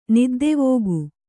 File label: "ನಿದ್ದೆವೋಗು", Kannada